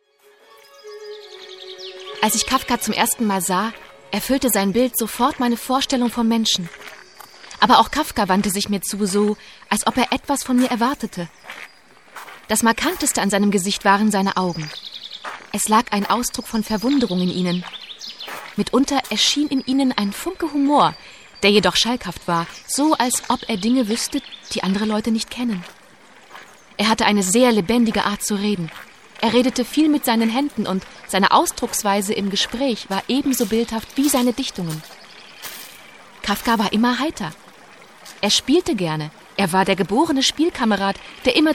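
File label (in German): Hörbuch; kafka dora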